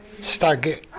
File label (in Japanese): "sitage", minami yamagata